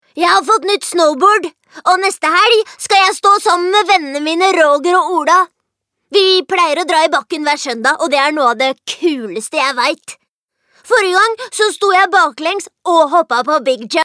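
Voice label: Norwegian dub boy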